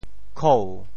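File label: "khou2", Teochew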